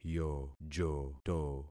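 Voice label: "yojoto", concatenated syllables; Affixing experiment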